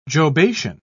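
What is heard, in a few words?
"jobation", British English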